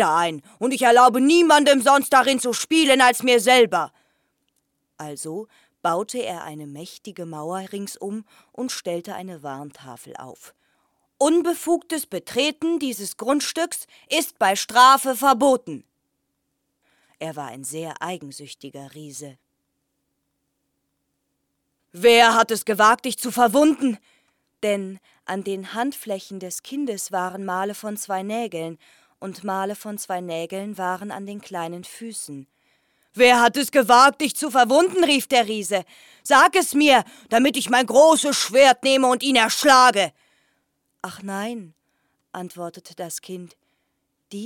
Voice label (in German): Hoerbuch Kinder; DereigensuechtigeRiese